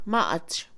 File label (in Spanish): Mixe, Mujer; arrugado; Percepción; INALI